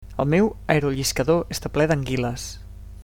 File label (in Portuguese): hovercraft, catalao